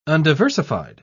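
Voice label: "undiversified", British English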